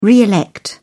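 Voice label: "re elect", British English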